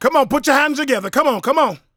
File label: PREACHIN, Gospel PREACHER; Spectrasonics; Vocal Planet